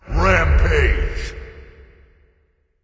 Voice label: "rampage", Announcer Killstreak; Khepri